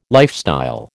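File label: "lifestyle", American English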